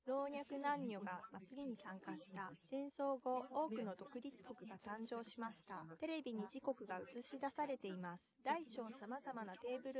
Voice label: test female inst sim